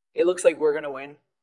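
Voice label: Voice Acting, Spanish, male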